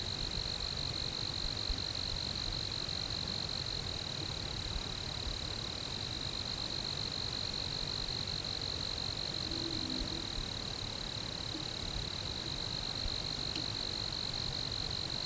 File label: evp; boot hill